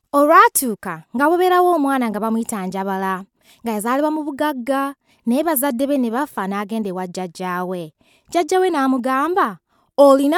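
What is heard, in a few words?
Luganda, General; GoLocalise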